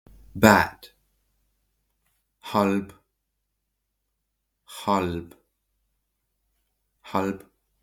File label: Bad, Estonian phrasebook; the talking estonian phrasebook